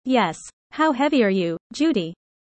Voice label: user fast; how tall